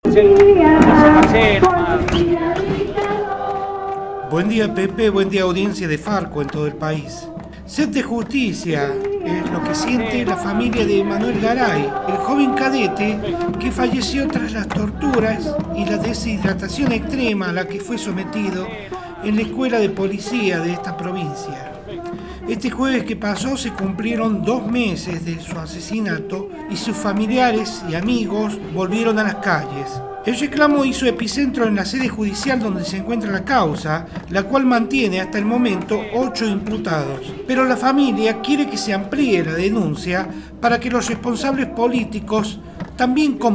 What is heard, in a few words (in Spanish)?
Reporte con sonido de fondo